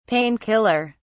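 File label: "pain killer", British English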